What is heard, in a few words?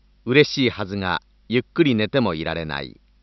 Japanese Male, Target; Copy Synthesis; CHATR